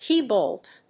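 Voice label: "key bolt", English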